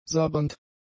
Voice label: "sabbernd", german; adjectives